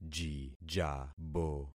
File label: "jijabo", concat syllables; Affixing experiment